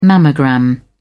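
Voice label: "mammogram", English, uk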